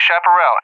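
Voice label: "Chaparral", English, USA; AirDefence; DCS; Allied Flight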